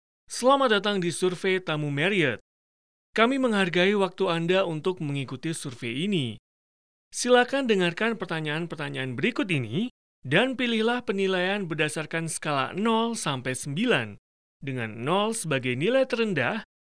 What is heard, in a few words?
indonesian ivr